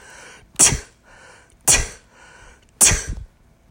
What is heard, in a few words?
T Voiceless